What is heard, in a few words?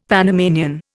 "Panamanian", Indian; Dictionary